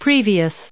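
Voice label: "previously", us English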